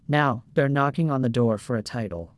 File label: improved conversational male